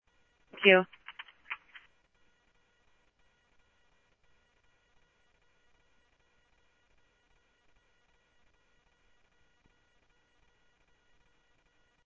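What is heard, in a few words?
Simulcast police department, scanner audio; Mesa Simulcast; MESA PD Superstn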